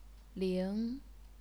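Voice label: "ling", second tone, chinese pinyin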